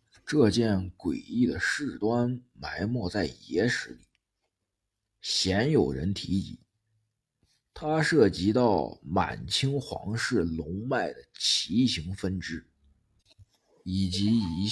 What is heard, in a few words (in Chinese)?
悬疑恐怖